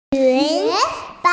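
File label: "yun2", Mandarin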